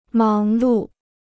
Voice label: "忙碌", Chinese